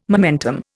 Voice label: "momentum", Indian

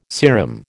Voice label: "serum", American

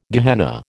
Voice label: "Gehenna", American

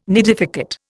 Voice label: "nidificate", Indian

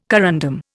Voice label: "corundum", Indian

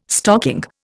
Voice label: "stocking", Indian

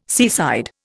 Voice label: "seaside", Indian

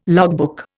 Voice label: "logbook", Indian

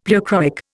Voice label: "pleochroic", Indian; Dictionary

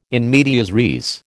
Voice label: "in medias res", American

